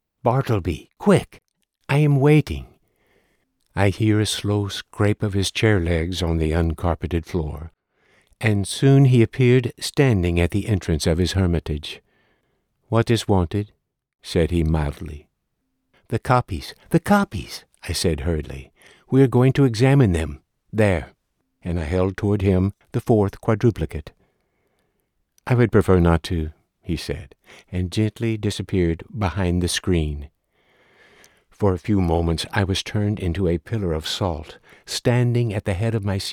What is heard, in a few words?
Classic Fiction, narration; Bartleby the Scrivener